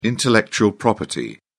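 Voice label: "intellectual property", British English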